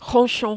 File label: "ronchon", French